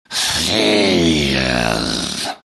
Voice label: anger; slardar

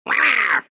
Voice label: anger; tinker

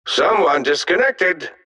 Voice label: announcer connection disconnect; dlc defensegrid

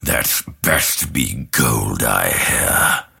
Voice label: lasthit pain; dota; grimstroke

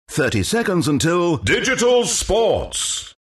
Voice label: announcer count battle; dlc stanleyparable

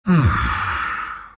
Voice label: happy; dota; earth spirit

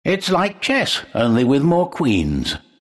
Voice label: announcer battle start; dlc trine

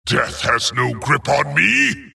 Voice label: wraith death; dota; skeleton king

